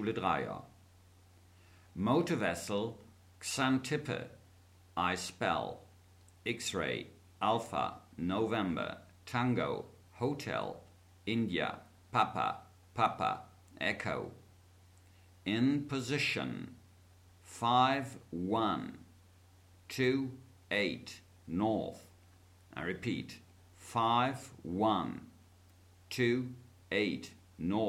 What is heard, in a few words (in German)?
Seefunktext